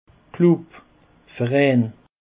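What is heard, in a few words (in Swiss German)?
"glub", Alsatian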